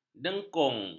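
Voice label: "dengkung", Malay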